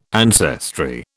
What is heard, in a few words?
"ancestry", British